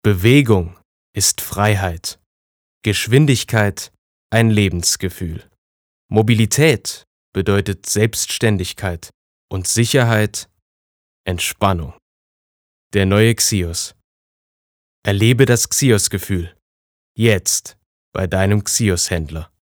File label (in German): Sanft; Xios